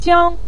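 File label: "jiang", Mandarin Chinese, neutral tone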